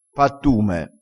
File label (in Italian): "pattume", crespinese